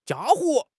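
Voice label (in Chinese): "家伙", Chinese; HSK; HSK6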